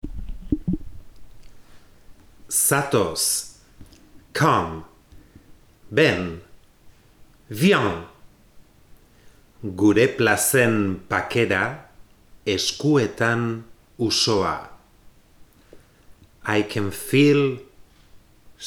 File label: Pronunciation guide